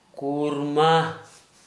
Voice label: Gta Birds owl, Dialect: Hill